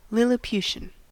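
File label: "lilliputian", American English; pronunciations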